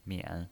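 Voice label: "mian", pinyin voice, Mandarin Chinese, third tone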